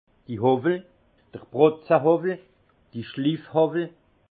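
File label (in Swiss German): "howwel", Alsace Haut Rhin; vin; rabots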